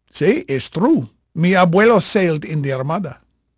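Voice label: Spanish male, voice; Pirates Of The Caribbean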